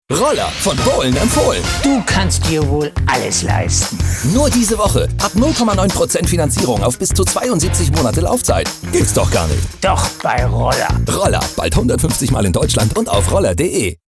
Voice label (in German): Werbung, DE; Roller Von Bohlen Empfohlen